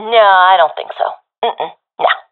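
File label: disagree, professional female; Source Model Packs; Counter Strike Model Pack; CS2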